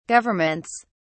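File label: "governments", English, British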